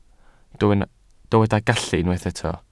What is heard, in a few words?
"gallu", Welsh